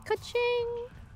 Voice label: kachin

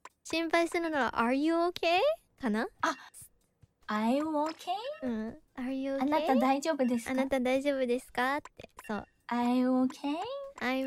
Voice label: cute learning english

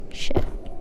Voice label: whispering shit

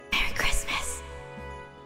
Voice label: whispers Merry Christmas

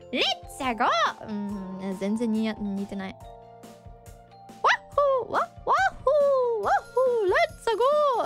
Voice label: Attempt to impersonate Mario

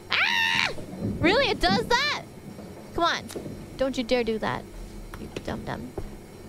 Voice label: Scream don't you dare do that you dumb dumb; IRyScream

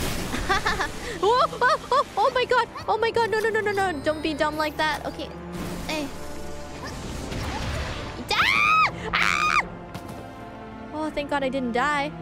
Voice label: lots of emotions here; IRyScream

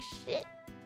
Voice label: "shit", Swearing

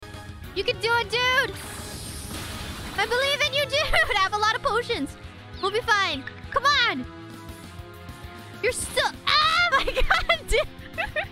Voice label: cheering on

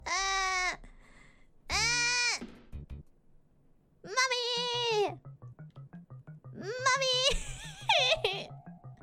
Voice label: tantrum

